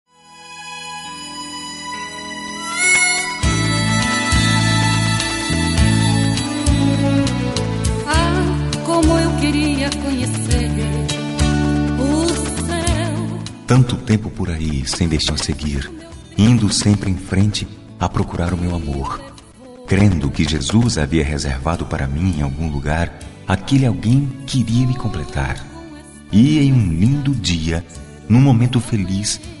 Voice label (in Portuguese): Romântica Evangelica M